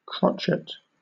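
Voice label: "crotchet", British English; pronunciations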